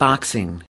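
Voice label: "boxing", US English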